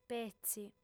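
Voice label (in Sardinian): "petzi", female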